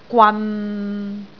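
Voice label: "gwan6", Cantonese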